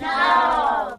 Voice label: "no", kids; Voice; expletives

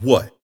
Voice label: "what", Voice

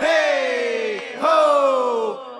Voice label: "hey ho" group of men, voice; ooh ahh

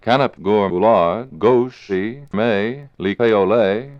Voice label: cannop gorla le payonay goush, gibbrish; Voice

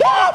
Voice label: "wah", Voice; ooh ahh

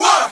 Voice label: what group of men

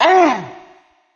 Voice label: "um" nongender; ooh ahh; uh um

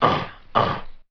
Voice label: "uh uh" demon, Voice; ooh ahh; uh um

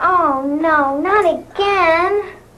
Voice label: oh no not again, girl; Voice; expletives

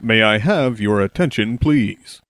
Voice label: may i have your attention please male; Voice; introductions

